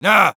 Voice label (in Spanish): Sniper no, es